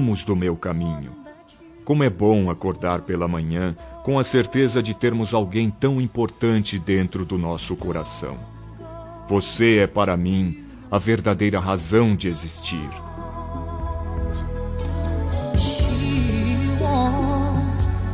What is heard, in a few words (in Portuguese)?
ROM APAIXONADO MASC, Telemensagens